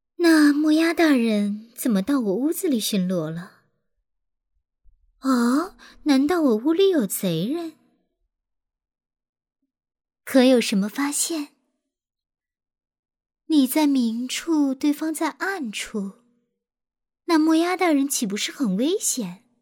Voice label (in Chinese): 女 动漫 动画人物温柔少女